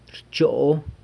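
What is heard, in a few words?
"rchoo", you warm, San Lucas Quiaviní Zapotec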